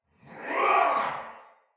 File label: Battle Cry Soldier Male; Barks; Dialog